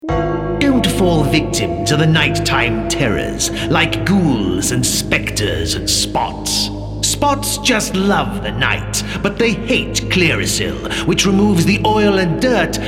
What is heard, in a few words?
ad